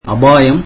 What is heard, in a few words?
tamil danger